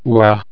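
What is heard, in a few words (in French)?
"oie", French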